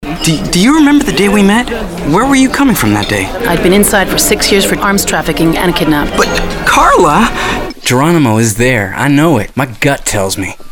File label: Character ENG